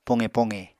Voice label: "poqe-poqe", Apatani